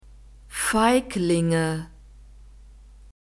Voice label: "feiglinge", German

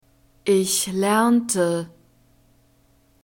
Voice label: "ich lernte", German; verbs past tense regular

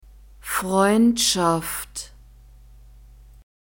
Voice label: "freundschaft", German; nouns gender feminine

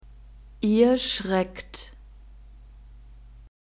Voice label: "ihr schreckt", German; verbs present tense irregular

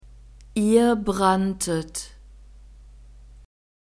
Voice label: "ihr branntet", German; verbs past tense irregular

